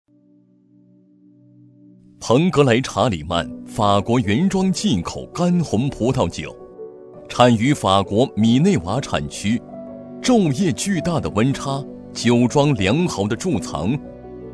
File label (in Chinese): 男 广告 红酒; 彭阁莱查理曼